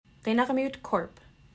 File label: "Qinarmiut", Yugtun, Tuntutuliak; (kin-ARGH-mute); Qinarmiut Corp.